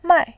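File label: "mai", Mandarin, tone 4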